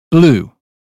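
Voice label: "blue", British phonetics; 英语音标发音（剑桥版）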